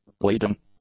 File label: "leading", english; adjectives